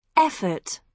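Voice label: "effort", British English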